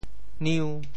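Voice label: "niu5", Teochew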